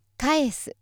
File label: female